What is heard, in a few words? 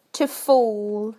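"to fall", British English; PictureDictionary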